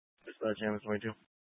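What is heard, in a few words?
Fire Dispatch, scanner audio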